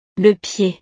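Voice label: "le pied", French; partes del cuerpo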